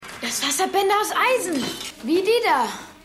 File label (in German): Junge; Stimmproben; USA